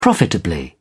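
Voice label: "profitably", British English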